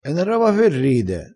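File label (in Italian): "e na roba", dialettale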